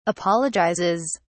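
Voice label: "apologizes", British English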